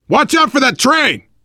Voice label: world farmhouse, voice, biker